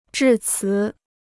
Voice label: "致辞", Chinese

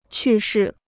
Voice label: "去世", Chinese

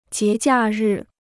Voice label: "节假日", Chinese

